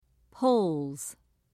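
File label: "polls", British English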